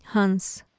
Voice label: "hans", Urdu